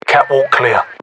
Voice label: clear, radyo; xect escape